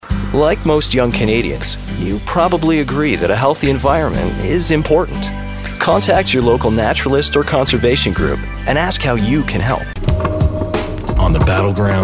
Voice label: Commercial; TaDa